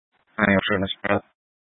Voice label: Fire Dispatch